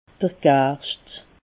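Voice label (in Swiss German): "garscht", Alsatian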